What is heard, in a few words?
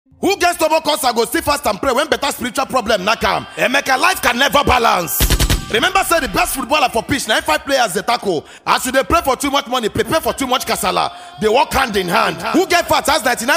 hypeman